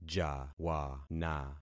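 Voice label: "jawana", concatenated syllables; Affixing experiment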